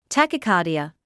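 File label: "tachycardia", British English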